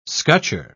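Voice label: "scutcher", English, British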